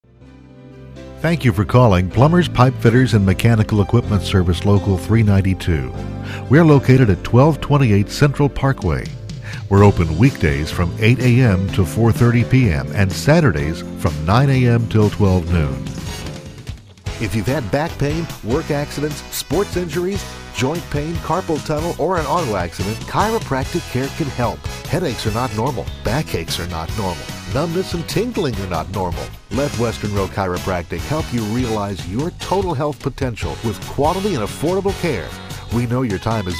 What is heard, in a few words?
on hold